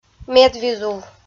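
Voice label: "медвизув", Komi, female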